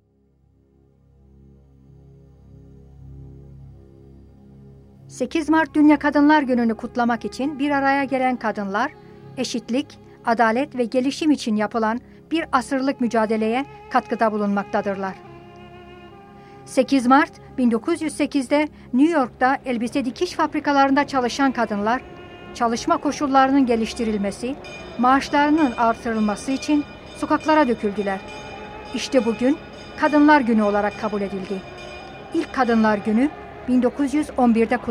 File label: Turkish